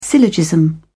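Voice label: "syllogism", British English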